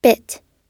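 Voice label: "bit", American English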